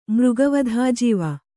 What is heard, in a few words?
"ಮೃಗವಧಾಜೀವ", kannada